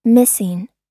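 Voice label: "missing", American English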